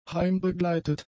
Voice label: "heimbegleitet", German; adjectives